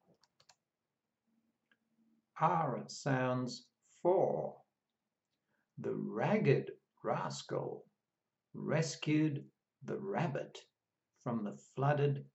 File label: R sounds, pronunciation of australian english